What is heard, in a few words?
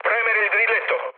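Italian attack position mood low, speech; War Thunder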